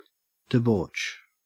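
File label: "debauch", Australian English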